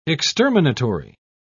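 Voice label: "exterminatory", British English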